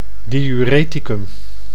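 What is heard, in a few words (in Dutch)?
"diureticum", Dutch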